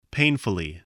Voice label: "painfully", English voice